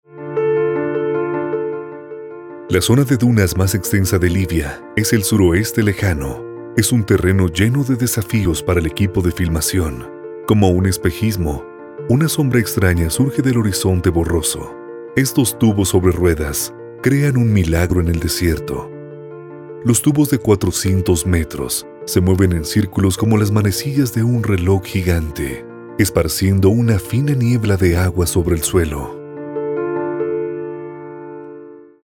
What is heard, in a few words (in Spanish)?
spanish colombia male narrative